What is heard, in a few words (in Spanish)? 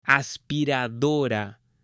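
"aspiradora" Spanish, male; UTENSILIOS; AppLenguaje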